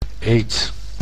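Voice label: "eed", Dutch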